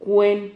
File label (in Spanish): "cuen", Silabas